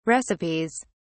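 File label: "recipes", British English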